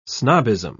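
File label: "snobbism", British English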